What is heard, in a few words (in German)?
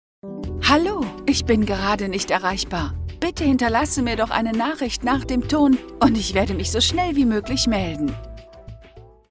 ich ansage mit musik, anrufbeantworter